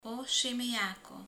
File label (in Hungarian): "egér", romani